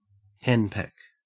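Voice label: "henpeck", Australian English; pronunciations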